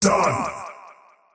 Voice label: last hit pain, faceless void; dota